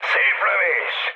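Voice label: player killed enemy, mood med, Hungarian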